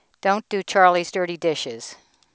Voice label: anechoic voices